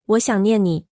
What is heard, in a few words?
"I miss you", Mandarin